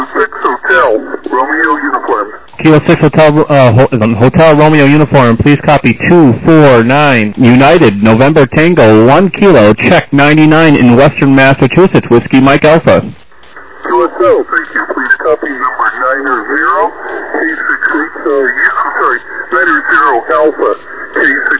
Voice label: USB 14MHz